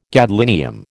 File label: "gadolinium", American